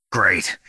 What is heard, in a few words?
cheer, voice commands; svencoop; Snake